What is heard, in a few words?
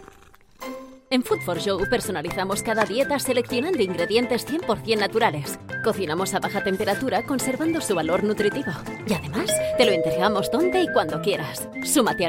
spanish spot comida gatos